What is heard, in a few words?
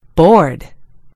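"board", American English; gcompris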